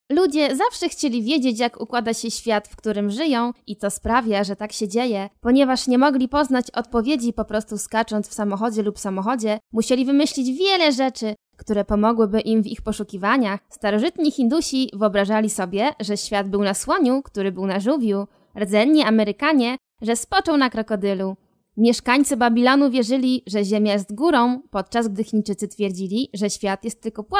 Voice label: Polish female